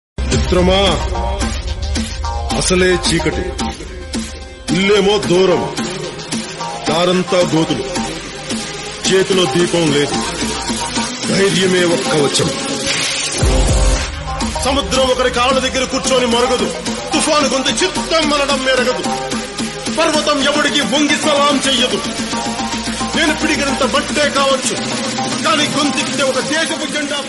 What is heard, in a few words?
Dialogue Telugu Ringtone; Jalsa; PK